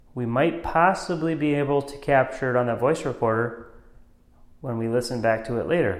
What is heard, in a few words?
whisper; sturtevant residence; 2nd floor 9:16 pm